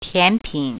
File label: "dessert", Mandarin